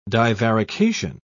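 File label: "divarication", British English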